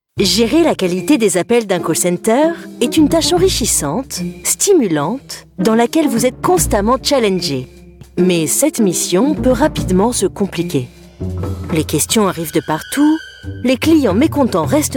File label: Corporate E-Learning FR